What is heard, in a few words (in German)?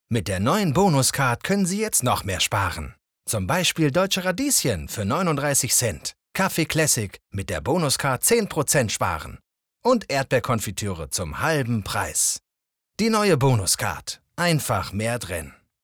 Casting Werbung